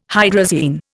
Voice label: "hydrazine", Indian